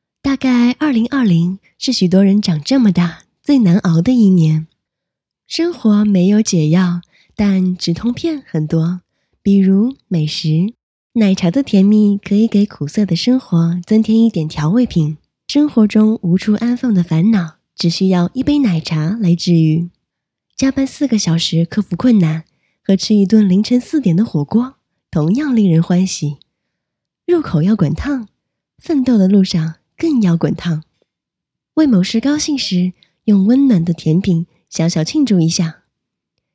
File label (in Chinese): 视频 旁白